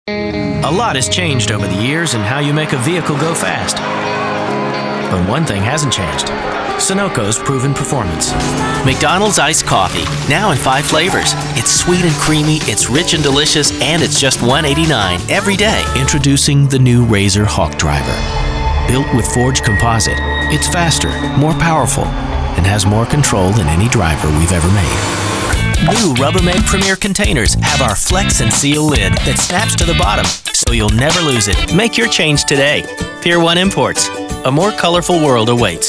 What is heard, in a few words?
M Commercial